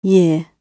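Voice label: "year", British English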